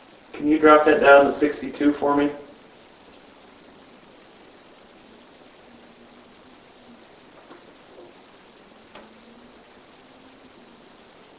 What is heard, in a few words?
Room "No"